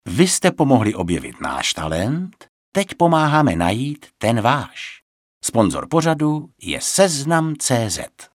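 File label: Male Czech commercial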